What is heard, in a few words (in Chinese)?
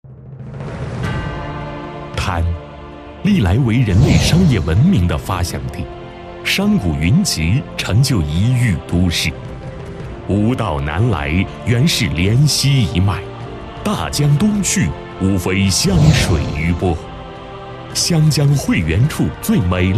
男 城市宣传片; 湘江发展